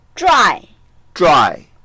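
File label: "zhuai4", Mandarin Chinese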